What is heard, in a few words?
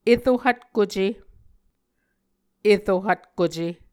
"ero hvtkuce", Muscogee